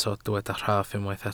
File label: "rhaff", Welsh